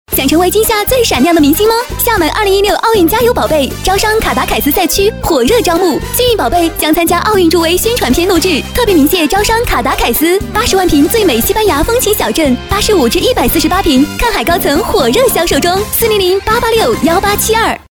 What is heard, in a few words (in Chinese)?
女 广告 奥运加油宝贝